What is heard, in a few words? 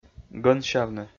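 "гӧнсявны", Komi, male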